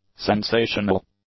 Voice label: "sensational", English; adjectives